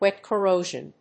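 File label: "WET CORROSION", English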